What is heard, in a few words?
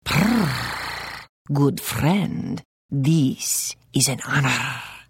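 Hello, Khajiit female; The Elder Scrolls III - Morrowind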